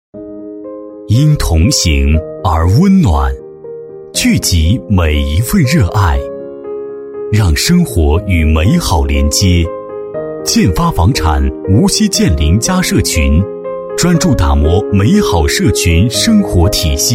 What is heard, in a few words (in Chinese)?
男 房产宣传片 大气; 明亮硬朗 高端大气